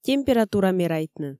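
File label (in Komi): температура мерайтны, Komi, female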